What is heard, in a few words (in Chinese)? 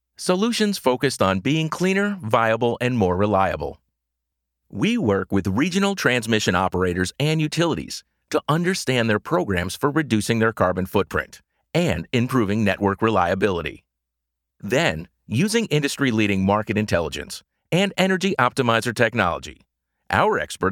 外籍男英 外语 美式英语 专题 年轻